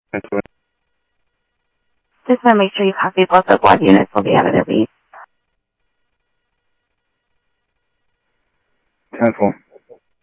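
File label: Sheriff East County, scanner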